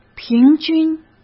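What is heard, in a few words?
"平均", Mandarin Chinese; chinese-flashcards; hsk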